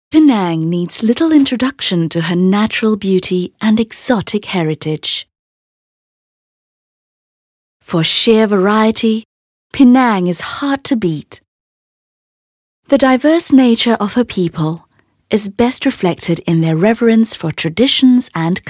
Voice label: Eng Narrative